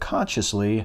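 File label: "consciously", American English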